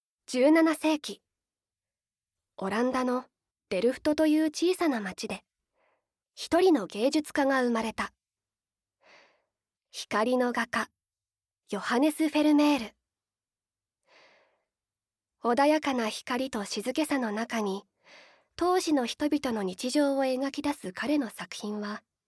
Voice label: ナレーション

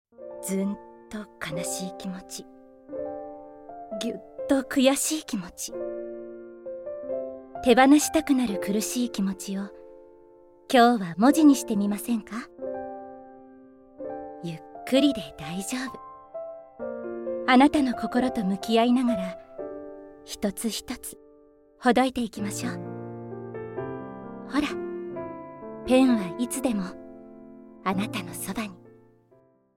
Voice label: NAサンプル

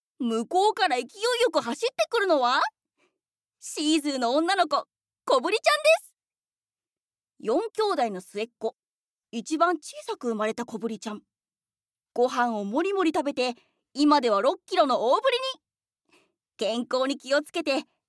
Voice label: ナレーション

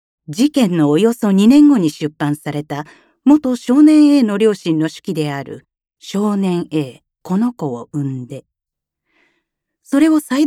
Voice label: ナレーション

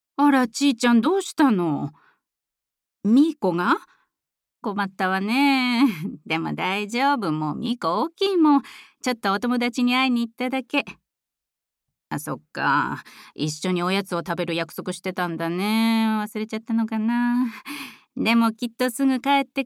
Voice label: 隣の優しいおばさん

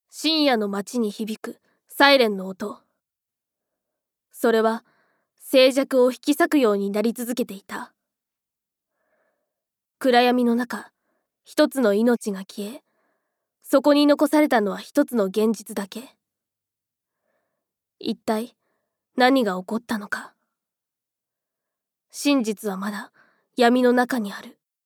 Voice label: ナレーション; テレビ東京系列 おはスタ内 ショートストーリー「ななし怪談」ガイド音声

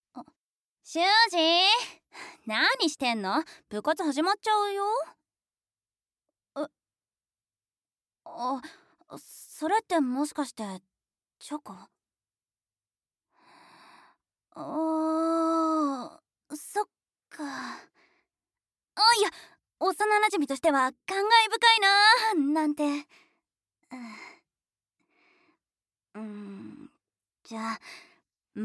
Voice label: 幼なじみの女の子

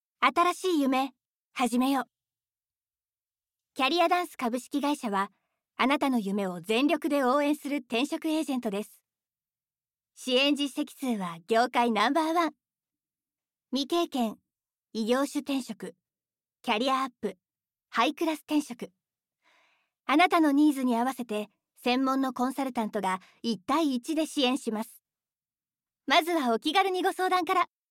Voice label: ナレーション

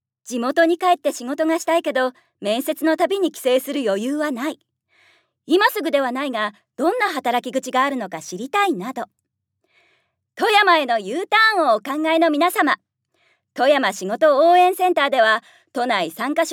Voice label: ナレーション

